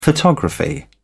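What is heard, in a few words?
"photography", British English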